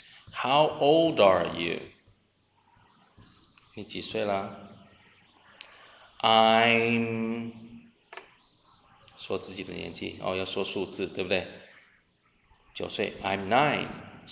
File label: conversation